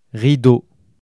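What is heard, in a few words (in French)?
"rideau", French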